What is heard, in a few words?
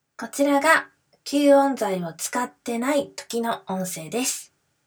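recording sample without sound absorption; kyuon nashi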